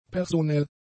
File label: "personell", German; adjectives